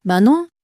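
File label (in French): "ben non", French, female; flashcards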